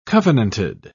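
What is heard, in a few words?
"covenanted", British English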